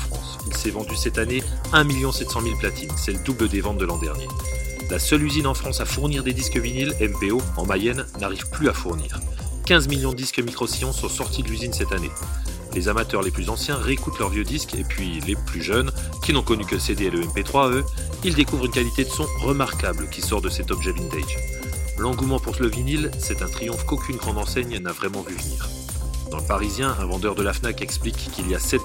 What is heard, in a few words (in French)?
exemple radio